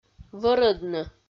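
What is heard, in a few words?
"вӧрӧдны", Komi, female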